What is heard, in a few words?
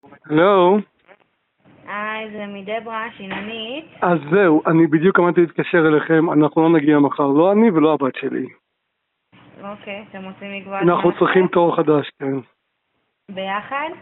call recording